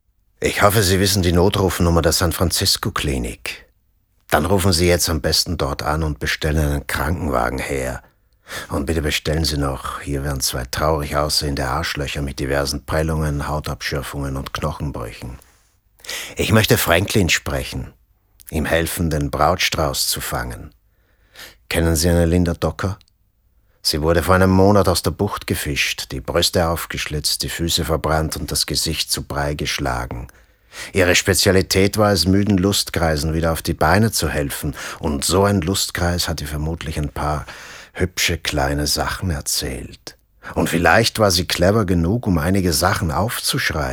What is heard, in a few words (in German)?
Synchron; Dirty Harry